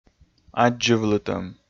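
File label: "аддзывлытӧм", Komi, male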